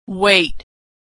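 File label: "weight", American English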